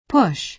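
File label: "push", American English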